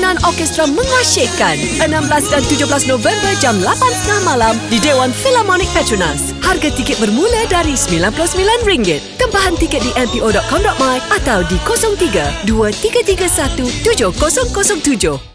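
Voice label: rock Malay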